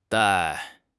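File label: "dai4", Chinese; HSK3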